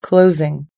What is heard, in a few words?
"closing", us english